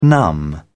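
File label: "numb", British English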